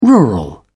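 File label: "rural", British English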